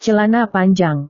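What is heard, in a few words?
"celana panjang", Indonesian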